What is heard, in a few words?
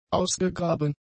"ausgegraben", German; adjectives